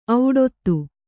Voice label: "ಔಡೊತ್ತು", Kannada

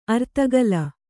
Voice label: "ಅರ್ಧಗೋಲ", kannada

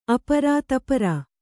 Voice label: "ಆಪೂರ", Kannada

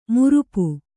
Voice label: "ಮಾರಾಪು", kannada

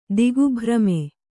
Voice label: "ದಿಗ್ಭ್ರಮೆ", kannada

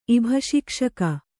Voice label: "ಇಭಶಿಕ್ಷಕ", kannada

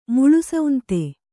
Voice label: "ಮುಳುಸೌಂತೆ", Kannada

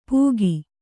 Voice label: "ಪೂಗಿ", Kannada

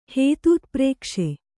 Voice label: "ಹೇತೂತ್ಪ್ರೇಕ್ಷೆ", Kannada

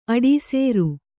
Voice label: "ಅಡೀಸೇರು", Kannada

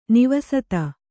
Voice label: "ನಿವಸತ", kannada

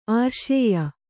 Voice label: "ಆರ್ಷೇಯ", Kannada

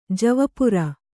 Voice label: "ಜವಪುರಿ", Kannada

